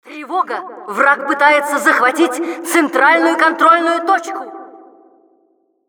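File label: Announcer alert center control being contested, russian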